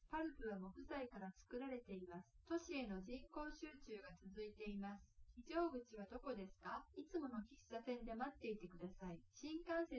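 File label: female live recording; SiSEC10; underdetermined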